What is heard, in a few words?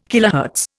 "kilohertz", Indian